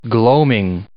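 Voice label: "gloaming", English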